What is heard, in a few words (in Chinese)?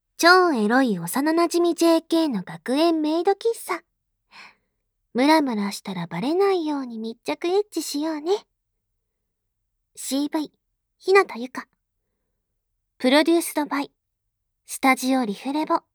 标题朗诵